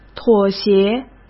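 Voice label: "妥协", Mandarin Chinese; hsk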